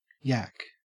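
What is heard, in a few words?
"yak", Australian English; pronunciations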